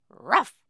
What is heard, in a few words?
english dog